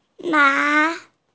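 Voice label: "na2", Mandarin Chinese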